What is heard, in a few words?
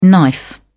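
"knife", English, British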